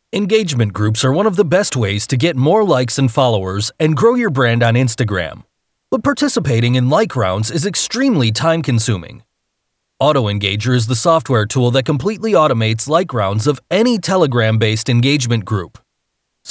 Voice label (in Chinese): 外籍男英 外语 美式英语 样音干音